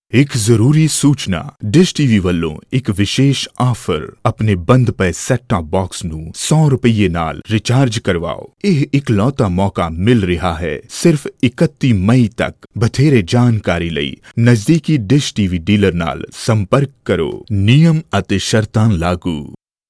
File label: Punjabi, Male Voice, natural heavy base voice and rough texture; Dishtv